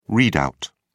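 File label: "read-out", British English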